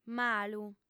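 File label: "malu", female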